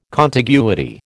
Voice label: "contiguity", American

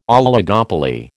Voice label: "oligopoly", American

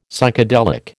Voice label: "Psychedelic", American

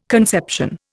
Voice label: "conception", Indian

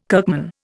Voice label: "kirkman", Indian

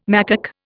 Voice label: "macaque", Indian

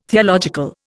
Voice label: "theological", Indian

